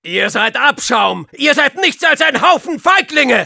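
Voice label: Soldier taunts, German; Ihr seid Abschaum! Ihr seid nichts außer ein Haufen Feiglinge!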